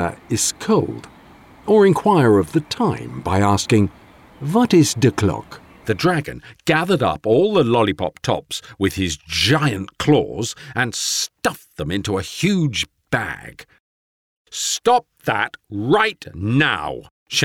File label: english british audiobooks